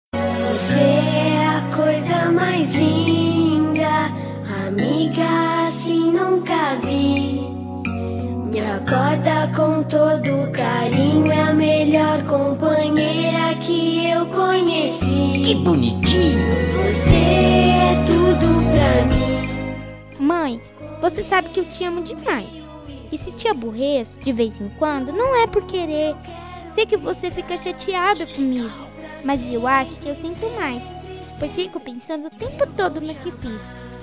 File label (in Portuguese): telemensagem; DIA DAS MAES; Teleco e Teco; Homenagem a Mamae; telemensagem dia das maes infantil